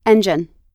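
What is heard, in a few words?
"engine", American English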